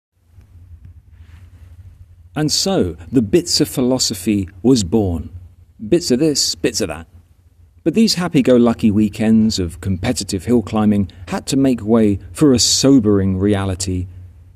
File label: voiceover